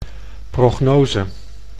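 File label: "prognose", Dutch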